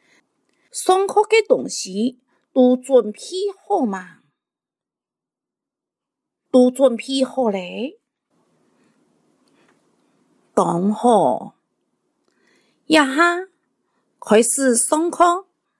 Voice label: 客語 課室生活用語 四縣腔

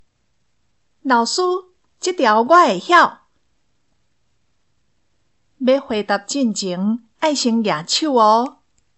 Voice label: 課室生活用語 (台語)

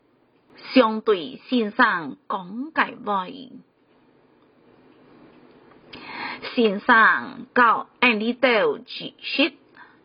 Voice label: 海陸腔

